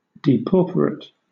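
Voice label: "depauperate", British English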